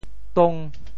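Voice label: "tong5", Teochew; 潮州; 癲僮; 癡呆、癡傻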